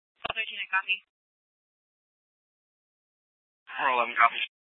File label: Dispatch, scanner; Regional Wireless Cooperative (RWC) - Simulcast H- Scottsdale; Scottsdale PD